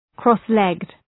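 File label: "cross legged", English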